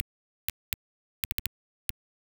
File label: Vox Glitch; Ambient Cinematic; Vox Loops